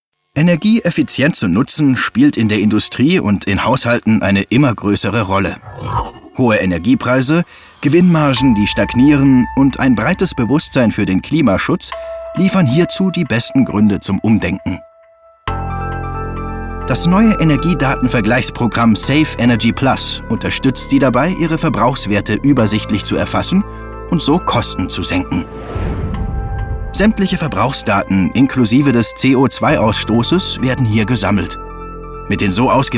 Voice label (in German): DEUTSCH MALE Erklaervideo; Strom Spar Controlsystem; Save Energy Plus; LOFT VOICES